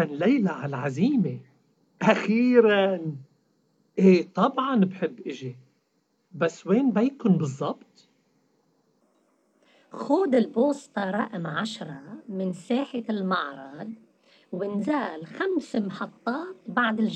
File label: dialogue, Arabic MSA; a complete course in spoken written arabic msa